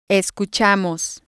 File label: "escuchamos", Spanish